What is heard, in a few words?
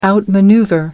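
"outmaneuver", British English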